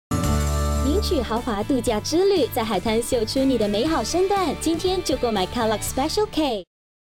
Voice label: MAND; KELLOGGES

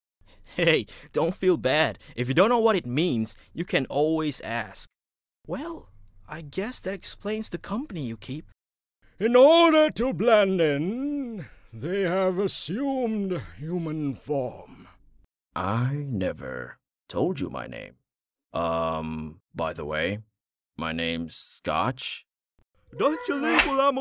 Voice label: English Character

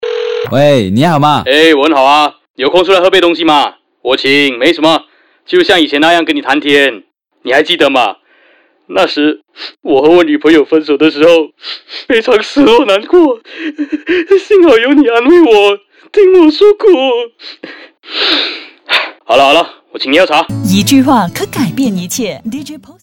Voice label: MAND

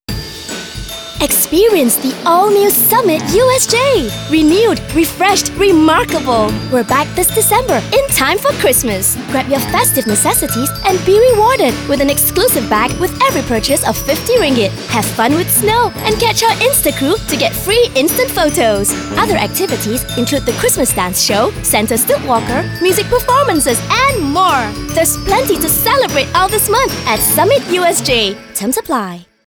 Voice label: CHRISTMAS ENG; SUMMIT USJ

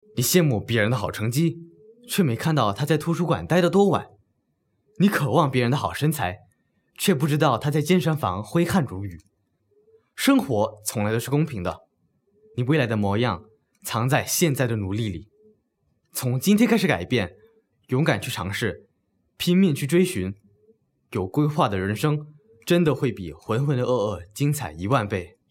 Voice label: Mandarin

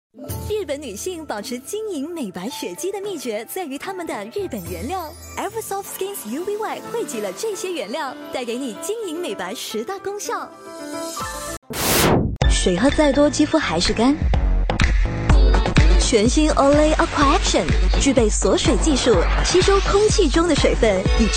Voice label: 3 Tone for Different Beauty Product

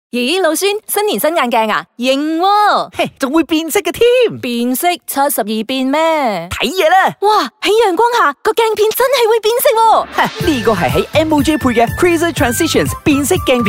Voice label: PROMO; MOG; CNY

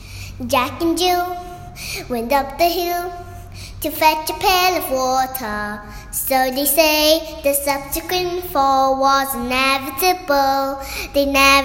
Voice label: Naughty

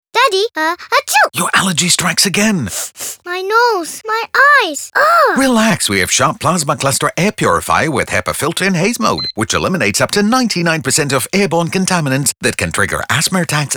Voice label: Air Purifier ENG; Sharp

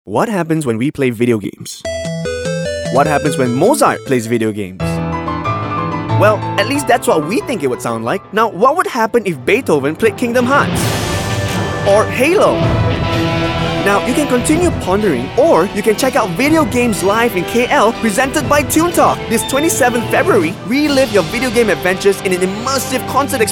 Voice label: ENG; TUNE TALK

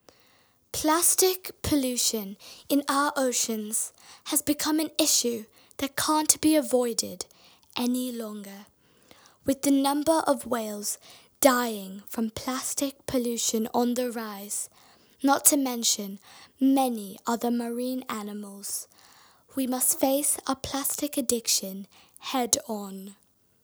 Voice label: VO Voice; Plastic Polution

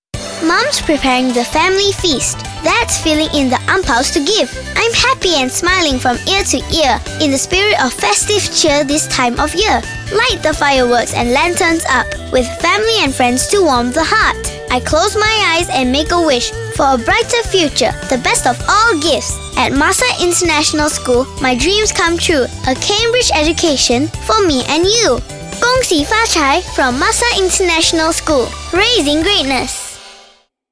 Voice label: English; CNY

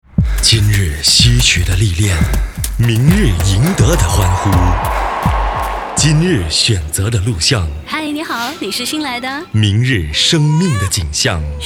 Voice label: Radio Mandarin; Mercedes C-Class Steps

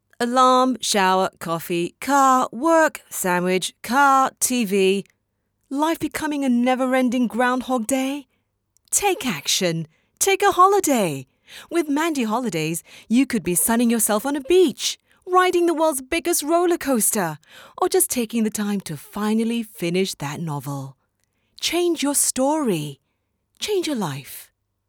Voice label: English commercial VO Holidays

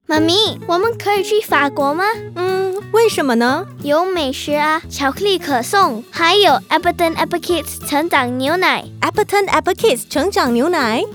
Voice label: Chinese; Appekids

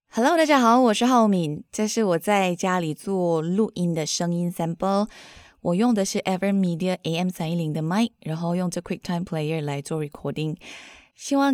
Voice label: home recording sample

